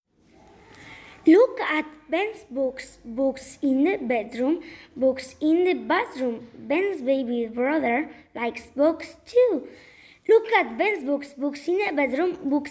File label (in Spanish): Trabalenguas ingles